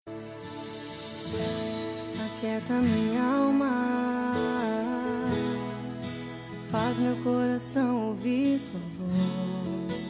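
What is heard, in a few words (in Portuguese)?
Aniversário Evangélico para Irmã Masculino, telemensagem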